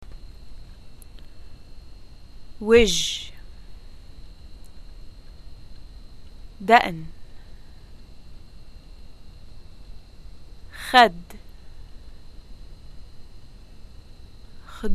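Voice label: face, Arabic, Lebanon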